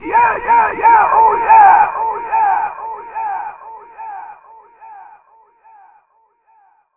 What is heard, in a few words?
YEAH YEAH, Funky Vocals; USB Soundscan vol.18; AKAI; COMPUTER